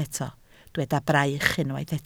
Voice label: "braich", Welsh